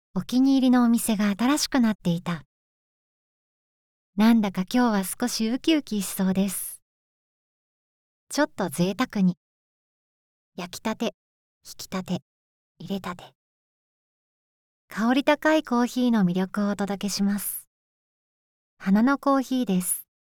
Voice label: female